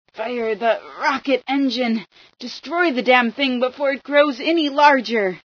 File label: rocket, female